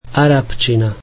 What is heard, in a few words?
Arabic, slovak